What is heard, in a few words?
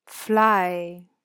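"Fly", English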